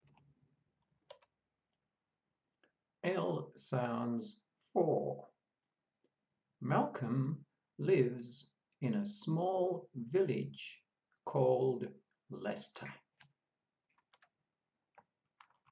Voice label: L sounds, pronunciation of australian english